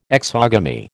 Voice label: "exogamy", American